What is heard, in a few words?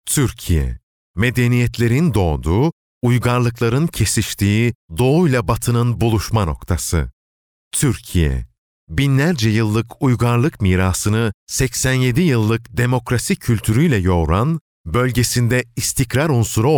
turkish m e-learning